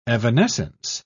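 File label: "evanescence", British English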